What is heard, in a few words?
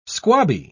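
"squabby", English, British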